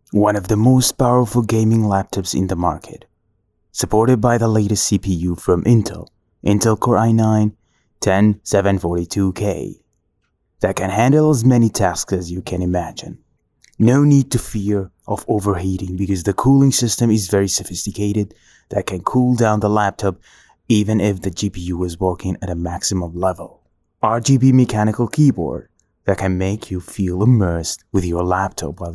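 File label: Arabic English, male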